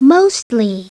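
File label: "mostly", spoken word; Princess and the Pea; SoftKey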